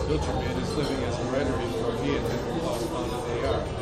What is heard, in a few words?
banquet story talker on KEMAR's left side; mckaudio